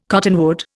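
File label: "cottonwood", Indian English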